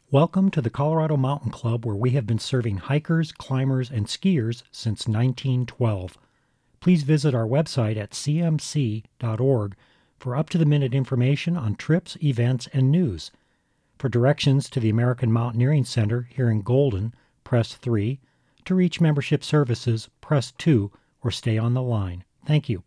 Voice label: telephone greeting